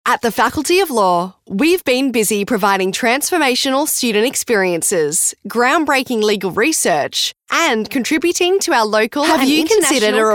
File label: Narration